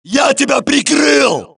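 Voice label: suppress, dialogue, Allies; mohaa; Mission 3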